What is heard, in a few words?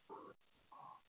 call recording